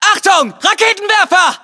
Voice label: US threat rpg, battlechatter; cod modern warfare 2